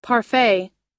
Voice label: "parfait", us; パフェー 《果物・シロップ・アイスクリーム・泡立てたクリームなどを材料とした冷たいデザート》